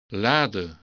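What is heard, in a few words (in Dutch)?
"laden", Dutch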